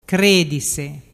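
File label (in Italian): "credise", dialect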